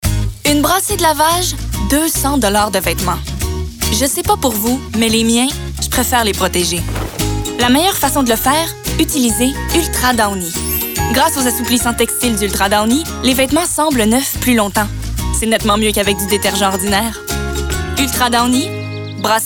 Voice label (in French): Démo Pub